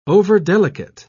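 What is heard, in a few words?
"overdelicate", British English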